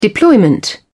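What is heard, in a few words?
"deployment", English, British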